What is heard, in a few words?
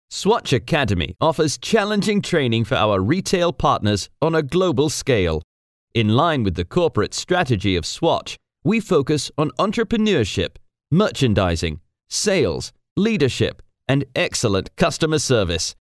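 British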